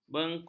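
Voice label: "bengkung", Malay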